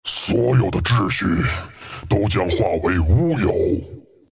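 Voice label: kill, chinese; Chaknight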